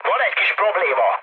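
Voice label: Hungarian aircraft damaged mood high, speech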